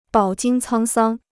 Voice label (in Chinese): "饱经沧桑", Chinese